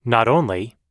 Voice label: not only, US English

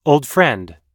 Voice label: old friend, US English

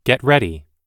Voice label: "get ready", US English

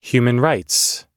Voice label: human rights, US English

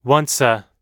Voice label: once a, US English

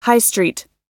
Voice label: high street, US English

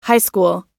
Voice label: high school, US English

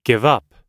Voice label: "give up", US English

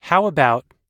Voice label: how about, US English

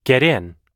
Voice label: "get in", US English

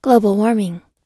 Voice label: "global warming", US English

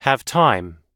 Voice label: have time, US English

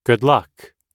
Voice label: "good luck", US English

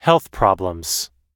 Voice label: "health problems", US English

